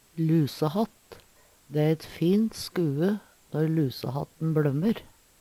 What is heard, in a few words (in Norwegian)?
lusehatt, Numedalsmål; Substantiv hankjønn; Planteriket